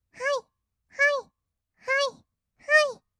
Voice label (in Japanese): はいはい, kawaii voice, 合いの手; 1200 kawaii voice!!; ASMR